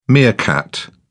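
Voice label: "meerkat", English, British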